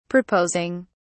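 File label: "proposing", British English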